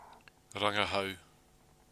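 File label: "rangahau", Māori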